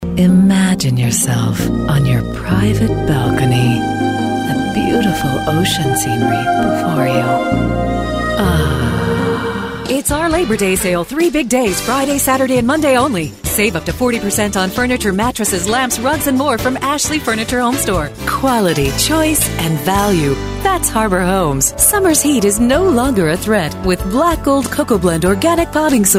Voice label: Commercial